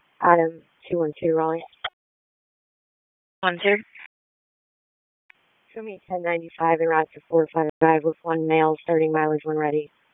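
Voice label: Police Northeast District Dispatch, Raleigh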